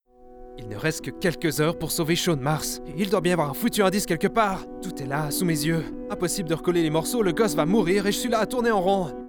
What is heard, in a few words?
French M Gaming; voice over